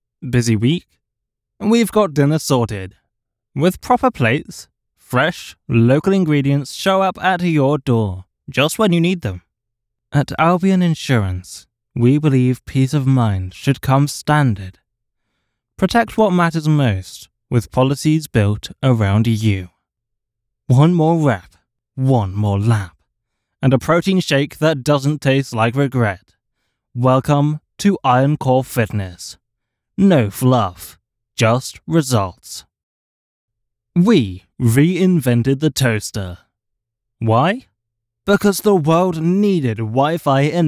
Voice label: Commercial Sample without music